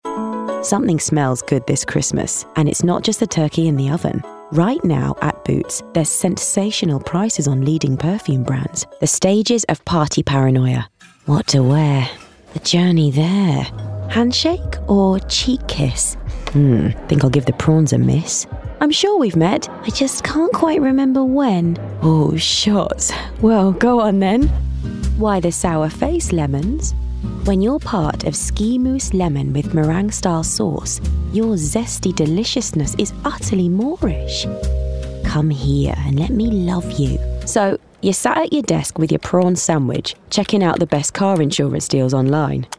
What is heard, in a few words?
Commercial Voicereel